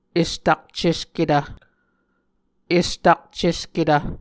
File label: "estakcesketv", Muscogee